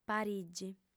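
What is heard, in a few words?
"parigi", Sardinian, female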